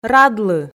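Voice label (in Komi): "радлы", Komi, female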